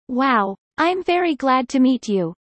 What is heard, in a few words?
fast